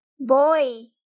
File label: "boy", English; grade1